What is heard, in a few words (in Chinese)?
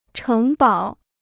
"城堡", Chinese